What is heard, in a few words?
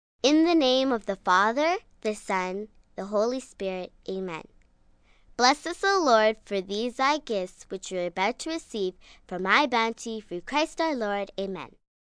Grace, Prayers, 1 girl, 9 yrs, Grace before a meal, human voice, kid, child, speak, talk; Talking; VoiceBox